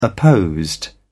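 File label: "opposed", British English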